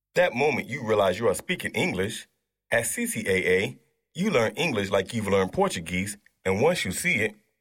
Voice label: VOICEOVER